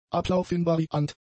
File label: "ablaufinvariant", German; adjectives